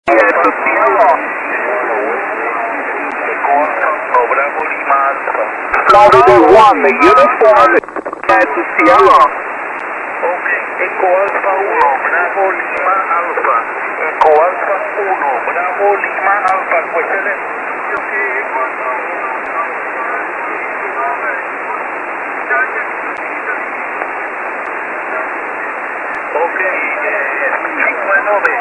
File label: PILE SSB